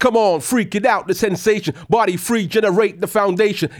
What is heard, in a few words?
RAP PHRASE